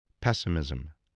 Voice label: "pessimism", voice, English